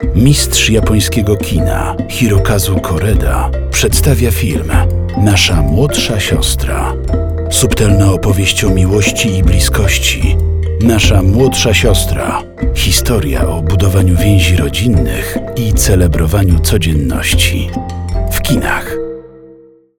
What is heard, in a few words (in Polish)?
spot radio; Nasza mlodsza siostra